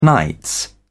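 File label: "nights", English, British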